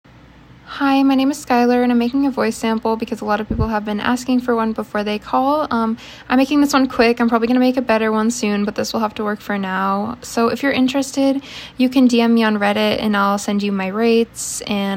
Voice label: F19 Voice Sample

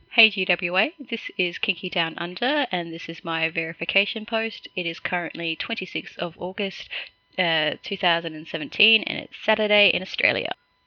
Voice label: Aussie accent, F

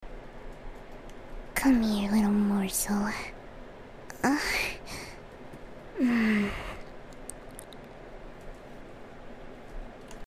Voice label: (Vore Sounds) Come here little morsel. Aaahh~; Fluttershy

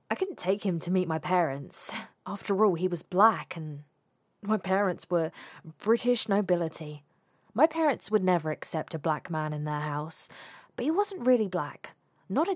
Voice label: UK Girl Sample

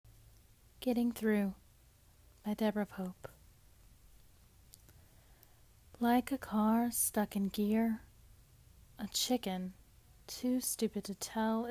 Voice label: poetry, romantic, sad; F4A; Getting Through by Deborah Pope; breakup

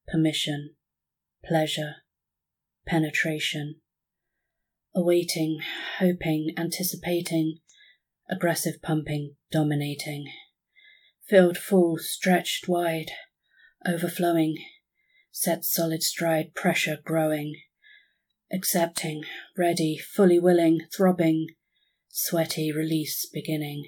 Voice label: The Kiss, Erotic Poem, F4M

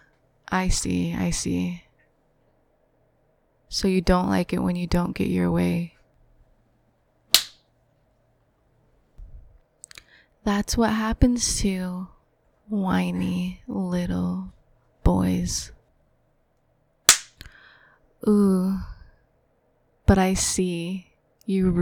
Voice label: Short, Good Boy, Praising, slaps; FDom

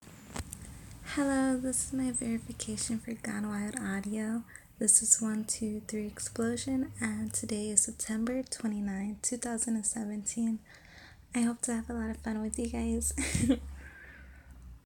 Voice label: [F] Verification

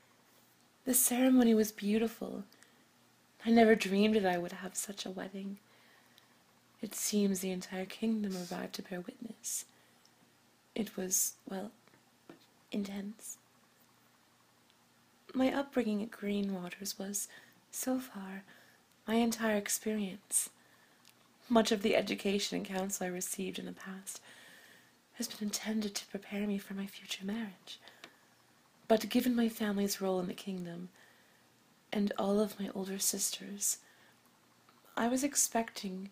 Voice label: [F4M] [Sweet][Nervous]; Fantasy; Prince; Princess; Arranged Marriage; Consumation

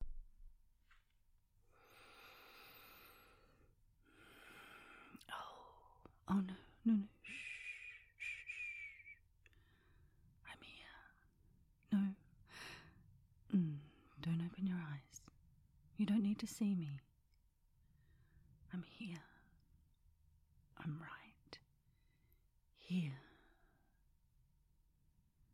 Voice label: Australian Accent, whispers, kisses; Little Smiles; [SFW]; [comfort]; [relaxation]; [migraine]

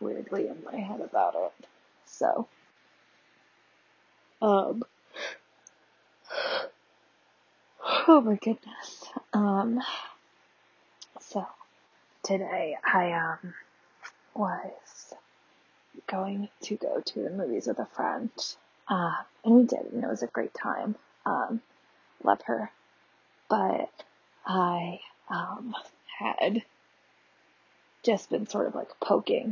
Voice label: ramble, potential white noise; F4A; vibe; lots of fucks

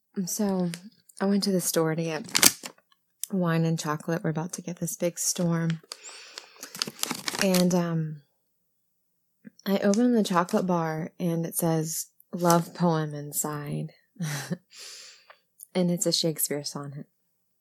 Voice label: Random Poetry Reading; storms; F4A; Sonnet 56

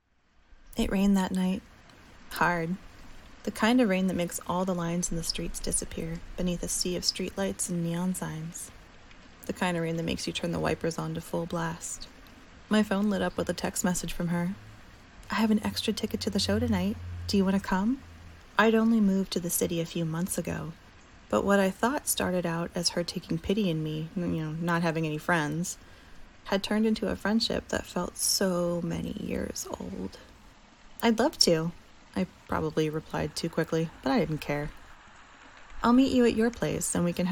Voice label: Kisses, F4F; Narrative

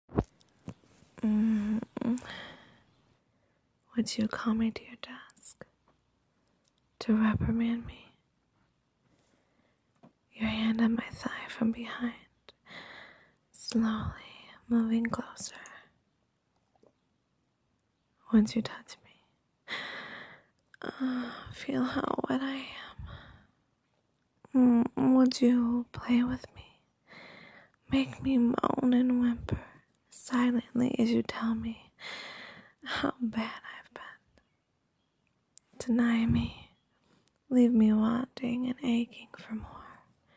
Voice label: breathy whispers, Breathy moans; F4M; Office tease

